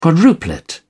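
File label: "quadruplet", English, British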